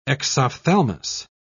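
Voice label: "exophthalmos", British English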